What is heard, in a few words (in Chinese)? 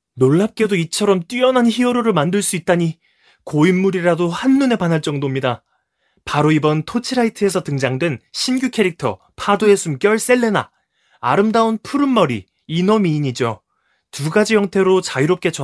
韩国男声 游戏信息流